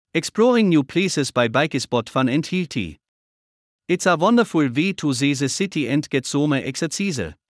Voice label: German, english accents; english accents challenge